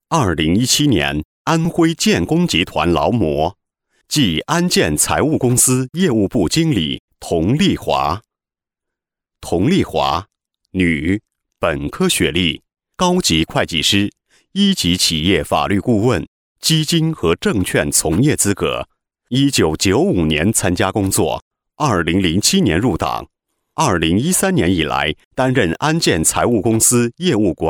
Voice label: 男 专题 人物介绍; 2017年安徽建工集团劳模

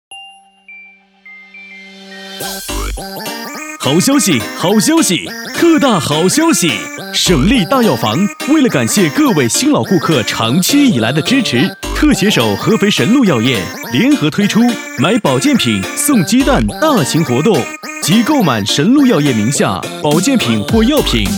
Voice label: 男 促销

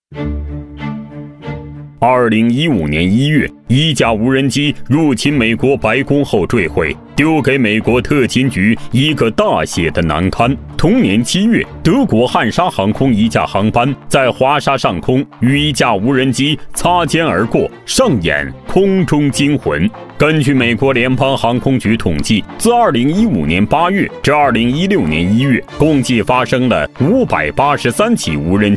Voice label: 男 专题 反无人机系统（科技类解说）

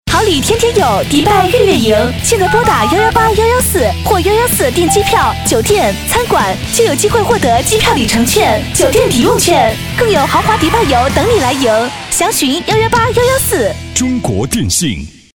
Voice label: 女 广告; 迪拜游

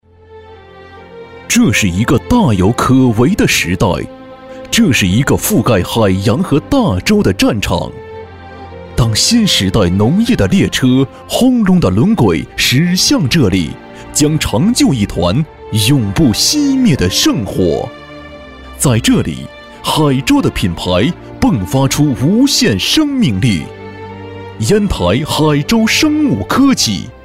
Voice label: 男 专题; 烟台海州生物科技

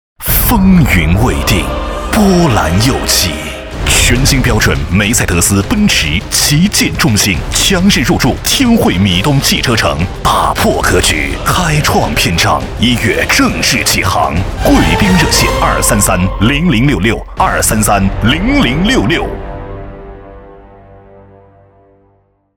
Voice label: 男 广告; 梅赛德斯奔驰广告

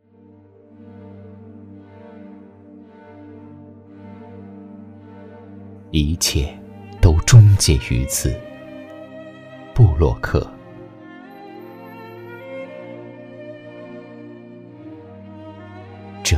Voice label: 男 朗诵 诗文; 一切都终结于此 布洛克

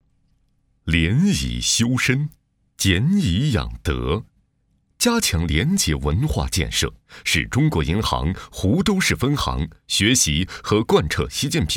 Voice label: 男 专题; 廉洁专题

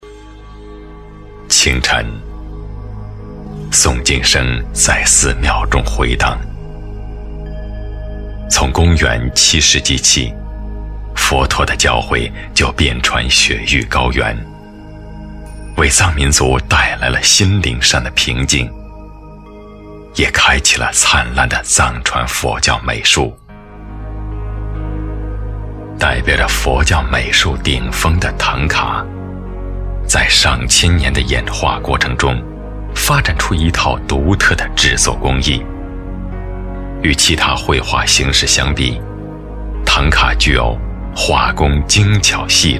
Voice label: 男 纪录片

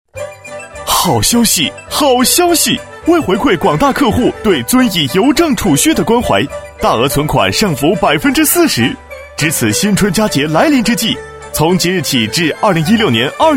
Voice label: 男46 促销; 遵义邮政

